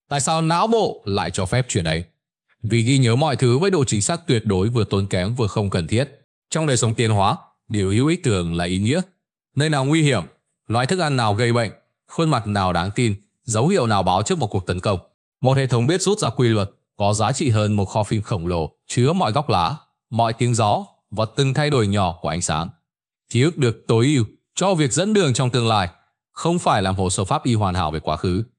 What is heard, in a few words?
narration